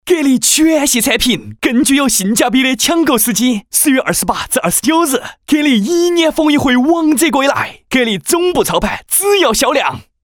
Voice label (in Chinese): 四川话促销广告; 格力产品